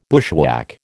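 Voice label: "bushwhack", American English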